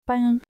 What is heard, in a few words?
"pain", French